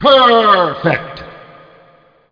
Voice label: peeeeeeeeeeeeeeeerfect